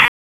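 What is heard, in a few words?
Raleigh Police Northeast District Dispatch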